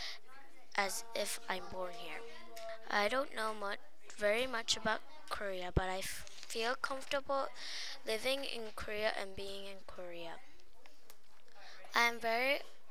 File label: korean